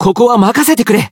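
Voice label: chant, Japanese; cless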